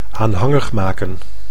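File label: "aanhangig maken", Dutch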